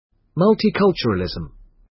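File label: "multiculturalism", British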